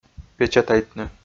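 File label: "печатайтны", Komi, male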